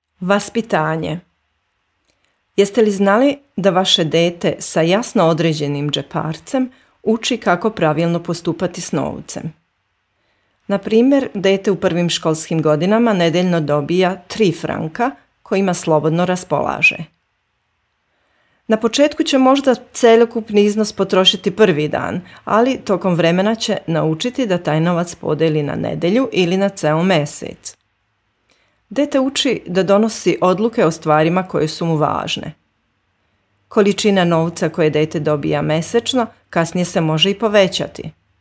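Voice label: Serbian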